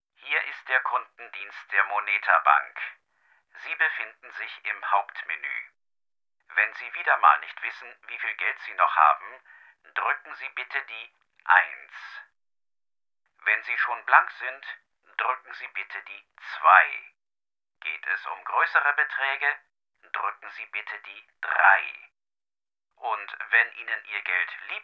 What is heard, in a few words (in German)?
Voiceportal Ansage